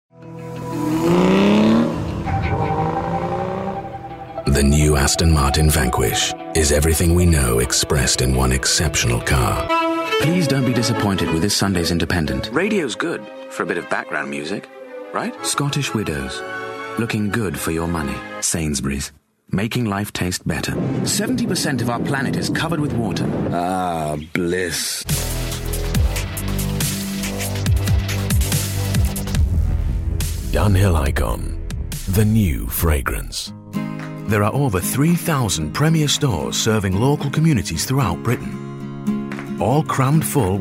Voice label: english british commercial

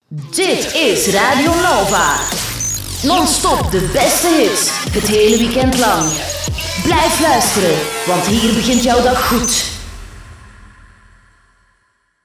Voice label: flemish radio imaging; flemish voice actors

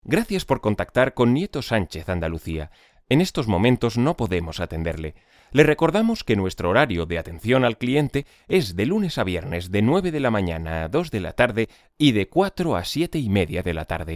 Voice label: spanish ivr

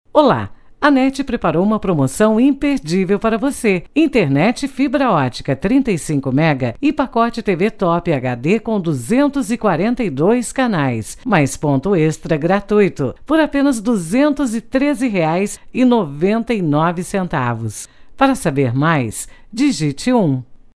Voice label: portuguese ivr

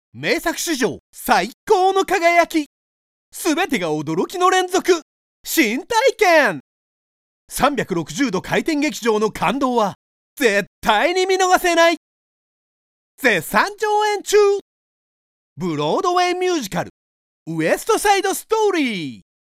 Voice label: japanese tv shows

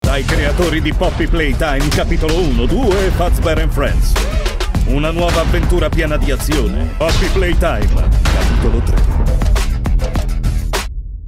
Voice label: italian movie trailers

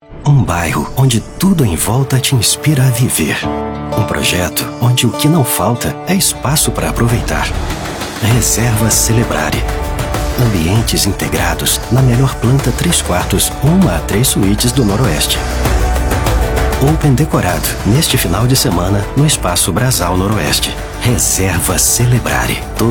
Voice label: portuguese brazilian real estate

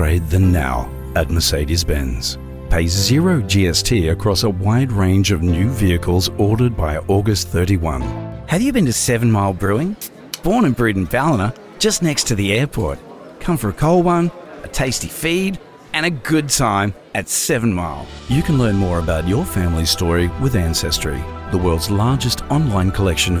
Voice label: English Australian commercial

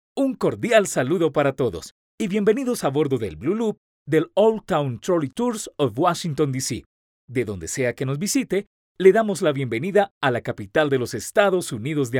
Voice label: spanish latin american audio guides

